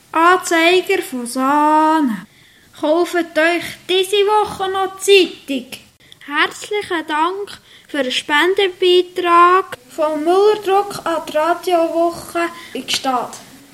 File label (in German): Jingle - Druck; Müller Druck